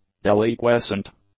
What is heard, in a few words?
"deliquescent", english; adjectives